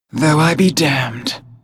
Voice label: Hero female demon hunter yes

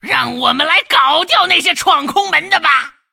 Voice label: attack, zh

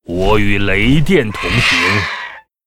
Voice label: yes attack, zh

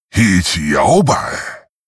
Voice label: Witch doctor pissed

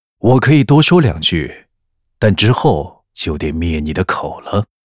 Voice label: pissed, zh

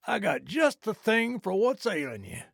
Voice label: trader male like greeting

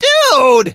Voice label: hurt vo; doug

